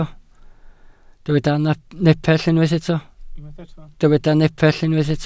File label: "nepell", welsh